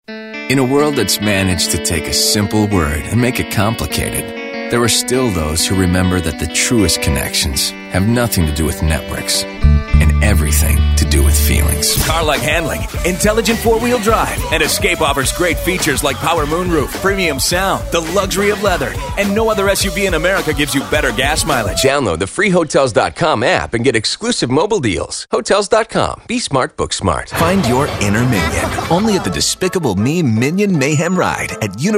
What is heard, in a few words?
Commercial Demo